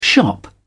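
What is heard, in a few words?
"shop", British English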